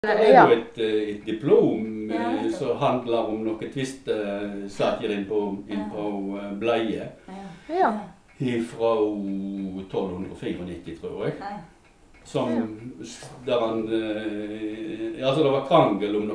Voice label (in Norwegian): Ullensvang, dialekt